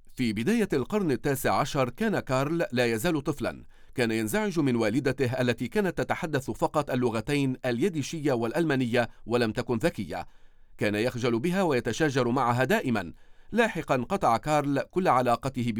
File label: Documentary